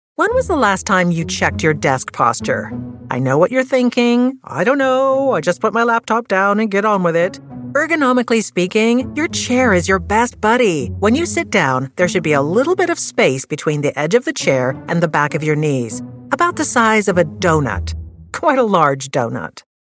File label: e-learning humorous